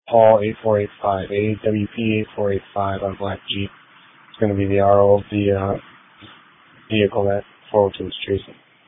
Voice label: Sheriff Dispatch